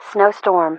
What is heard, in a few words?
Snow Storm, ATIS